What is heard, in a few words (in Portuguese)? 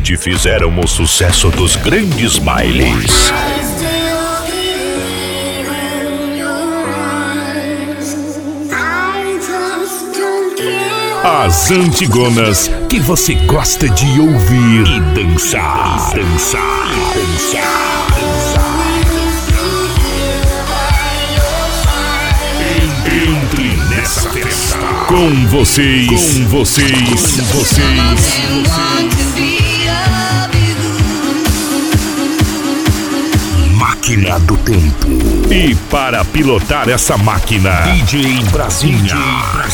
Locutor, Flash Back; Maquina do Tempo